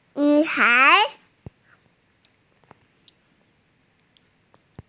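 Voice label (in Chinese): "nv3hai2", Mandarin Chinese, female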